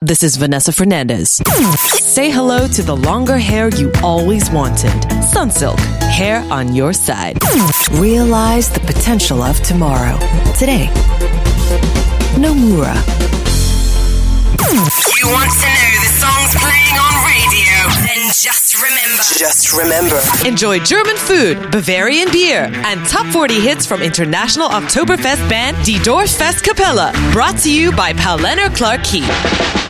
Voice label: Asian English, Singaporean Female